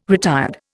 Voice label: "retired", Indian